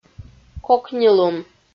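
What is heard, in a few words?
"кокнилун", Komi, female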